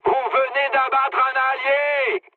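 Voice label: French player killed ally mood high; War Thunder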